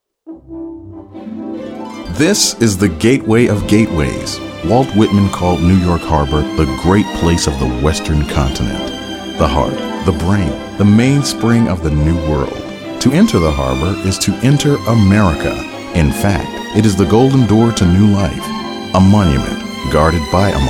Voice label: narration